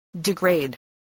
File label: "degrade", English